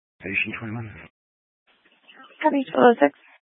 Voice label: Fire Department Dispatch; Grand Junction

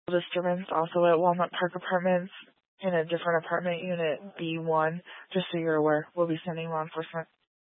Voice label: Grand Junction Fire Department Dispatch

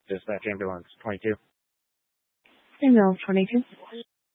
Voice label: Fire Department Dispatch

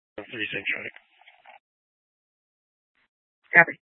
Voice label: Tactical Incidents, scanner

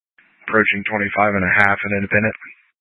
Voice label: Tactical Incidents, scanner

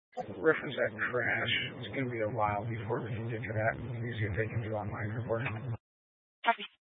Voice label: Sheriff Dispatch; Mesa County

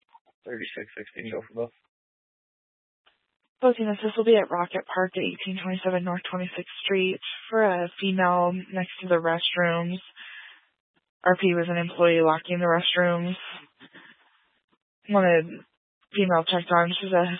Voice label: Police Dispatch; Police 1 Dispatch

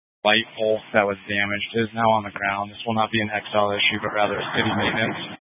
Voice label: Fire Department Dispatch; Grand Junction

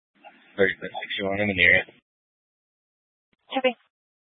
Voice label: Police Dispatch, Scanner audio

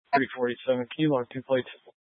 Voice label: Police Dispatch, scanner; Police 1 Dispatch

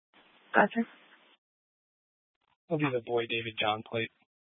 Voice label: Police 1 Dispatch

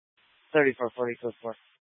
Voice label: Police Department Dispatch, scanner

